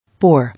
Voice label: "Poor", English